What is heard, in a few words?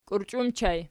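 "kiurchi" ergative plural, Archi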